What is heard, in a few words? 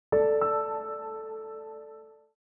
intro Voice